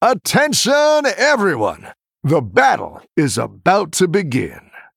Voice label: Male Human New Game